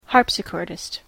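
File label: "harpsichordist", English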